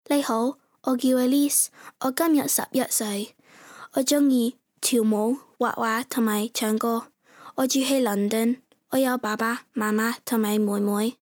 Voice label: Chinese Intro; Cantonese Language